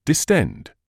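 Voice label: "distend", British English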